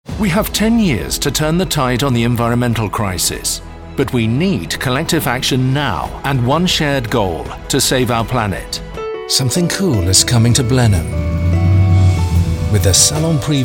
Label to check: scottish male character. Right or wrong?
Wrong